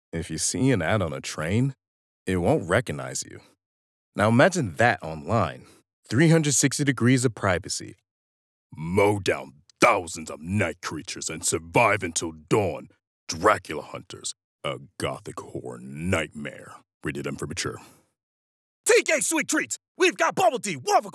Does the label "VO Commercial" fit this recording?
Yes